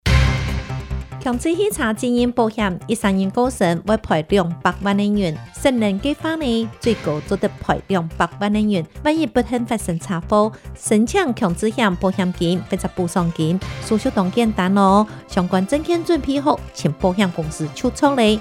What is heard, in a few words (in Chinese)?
理賠篇(客語); 11月30日起，微型電動二輪車新車須投保強制險才能上路，現行已領有合格標章行駛上路的微型電動二輪車，應該在施行後2年內投保強制險。